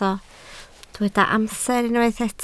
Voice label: "amser", Welsh

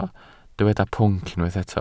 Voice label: "pwnc", Welsh

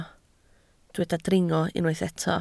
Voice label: "dringo", Welsh

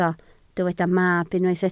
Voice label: "mab", Welsh

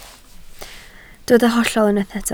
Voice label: "hollol", Welsh

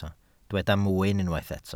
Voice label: "mwyn", Welsh

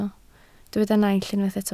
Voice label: "naill", Welsh

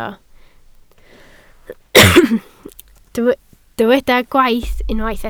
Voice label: "gwaith", Welsh

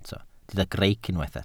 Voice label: "greic", Welsh

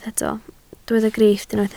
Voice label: "grifft", Welsh

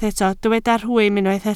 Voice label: "rhwym" Welsh

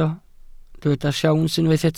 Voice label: "siawns", Welsh

